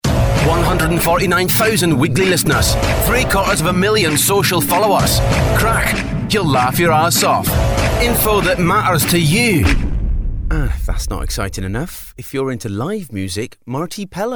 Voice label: Accents Characters